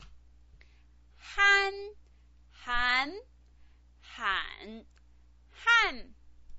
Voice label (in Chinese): "han", pinyin